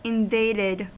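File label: "invaded", US English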